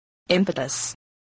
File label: "impetus", spelling